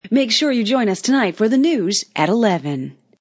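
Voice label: News Female Join Us Tonight, A female news anchor says; Talking; News Female Ad; Voice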